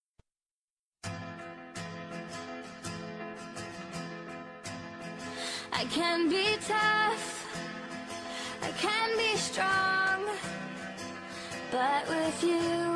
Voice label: MATERNIDADE P MÃE SOLTEIRA FEM; FELICITAÇOES

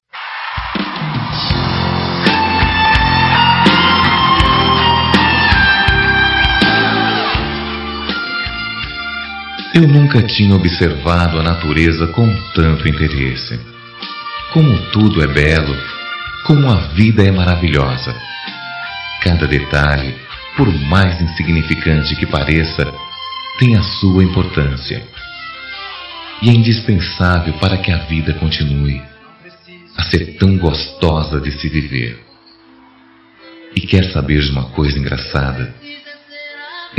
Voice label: sertaneja paquera masc; Telemensagens; Conquistas Cantadas paqueras; Telemensagens 2017

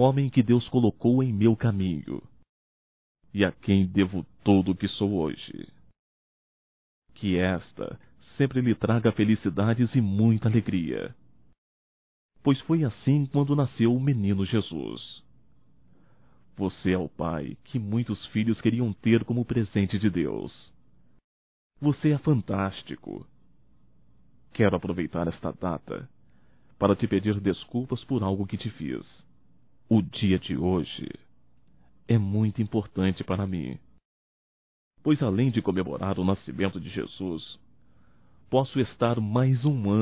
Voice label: Ano Novo Pai Masculino; Natal e ano novo; Telemensagens 2017

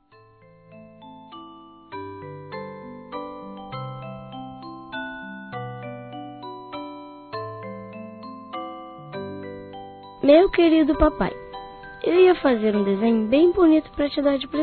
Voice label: FILHO P PAI INFANTIL, Telemensagens, Dia dos Pais; Telemensagens 2017

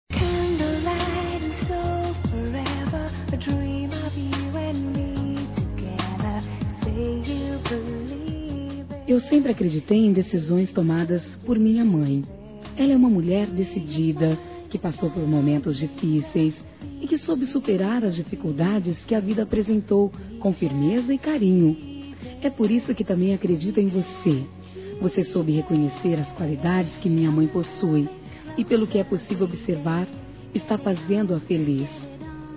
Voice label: PADRASTO, Telemensagens Aniversários; Aniv Familiar

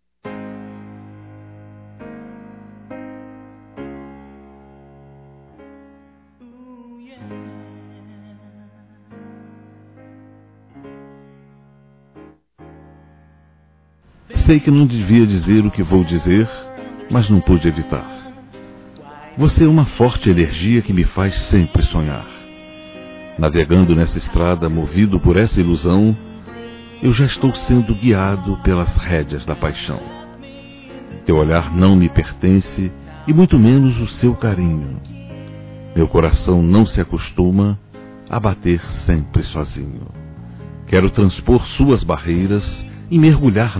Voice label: Conquista Romantica Suave Masculino; Telemensagens; Conquistas Cantadas paqueras; Telemensagens 2017

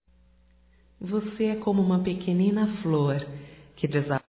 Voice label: Dia Crianças Feminino; Datas Mistas; Telemensagens 2017

